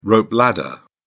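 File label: "rope ladder", British English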